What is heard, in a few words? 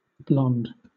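"blond", English, British accent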